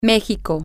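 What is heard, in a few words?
Spanish, female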